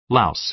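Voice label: "louse", American English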